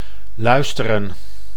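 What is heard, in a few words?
"luisteren", Dutch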